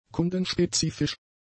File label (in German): "kundenspezifisch", German; adjectives